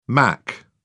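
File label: "mach", British English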